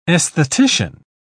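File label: "aesthetician", English, uk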